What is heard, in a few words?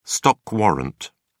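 "stock warrant", English, British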